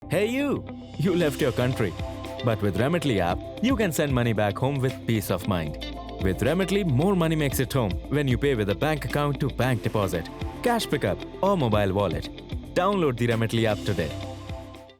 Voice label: Ad Indian English; Remitly